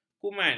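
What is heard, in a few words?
"kuman", Malay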